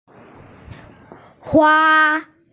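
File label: "hua1", Mandarin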